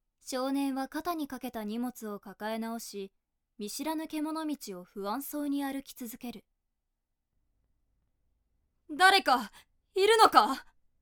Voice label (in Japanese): 朗読